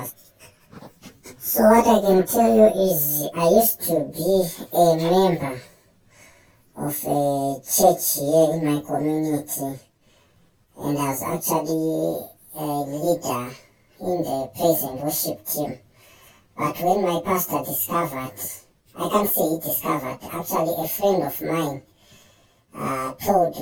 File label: Voice Changer; HIV stigma